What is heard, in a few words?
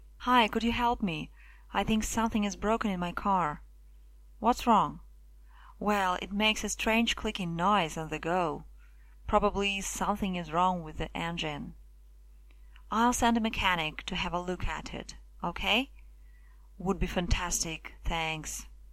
dialog gas station